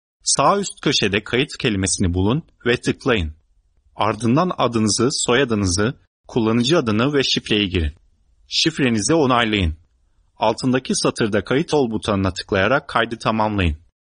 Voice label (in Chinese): 土耳其语男; 外语; 小语种